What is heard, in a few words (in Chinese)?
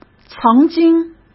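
"曾经", Mandarin Chinese; hsk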